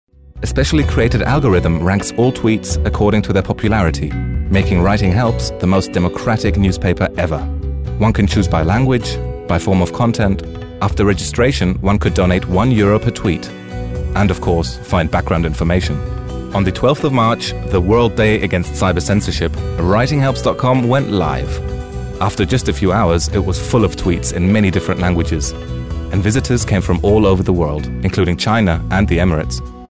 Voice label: male german voice over talent english